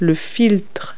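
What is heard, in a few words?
"filter", English word; Multi-Lingual Talking Picture Dictionary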